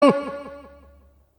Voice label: announcer ball red scores; tf2cciv; open fortress